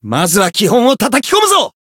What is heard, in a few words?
variable chant, jp